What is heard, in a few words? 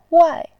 "wai4", voice